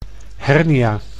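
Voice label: "hernia", Dutch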